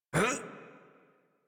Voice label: Adult Operator Jump, en; Warframe; New War